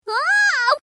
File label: wow, reverse